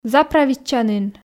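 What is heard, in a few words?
"заправитчанін", Komi, female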